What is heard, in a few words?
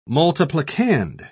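"multiplicand", English, British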